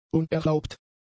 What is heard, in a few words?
"unerlaubt", German; adjectives